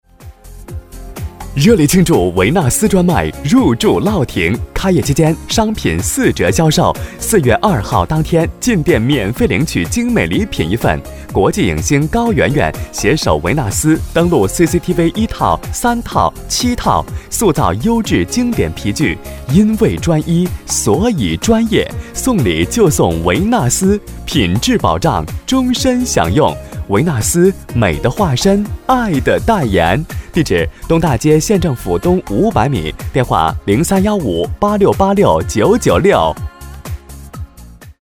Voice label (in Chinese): 男 中文 广告类; 维纳斯入驻